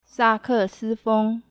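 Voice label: sakesifeng, saxophone, mandarin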